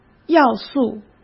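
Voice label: "要素", Mandarin Chinese; chinese-flashcards; hsk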